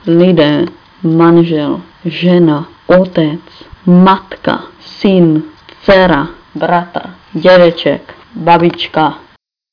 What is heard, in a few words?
czech; people